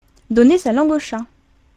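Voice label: "donner sa langue au chat", French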